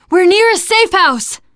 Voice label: safe spot ahead, teen girl; player; survivor; voice

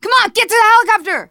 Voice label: arrive chopper, teen girl; player; survivor; voice

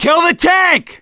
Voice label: battlecry tank, survivor voice; gambler

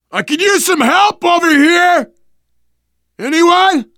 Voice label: call for rescue, survivor voice biker; Left 4 Dead 2; left4dead2 dlc3

